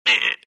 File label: anger; tinker